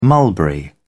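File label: "mulberry", British English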